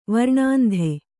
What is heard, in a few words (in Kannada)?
"ವರ್ಣಾಂಧೆ", Kannada